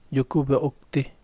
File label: "relámpago", Yaqui